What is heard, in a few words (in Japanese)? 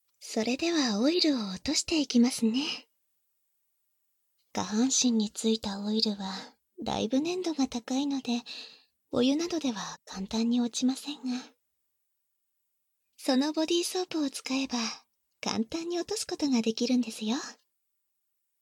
シャワー室で内緒のサービス（バイノーラルマイク収録 効果音あり大きめ）; 効果音大差分